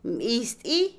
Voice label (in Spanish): hinchazón, Otomí, mujer; Cuerpo; INALI